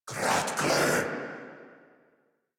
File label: Surprised Corrupted Grineer Male